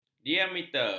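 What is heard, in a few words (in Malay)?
"diameter", Malay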